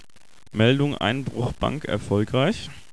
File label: tv news; dime city